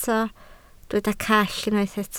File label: "call", Welsh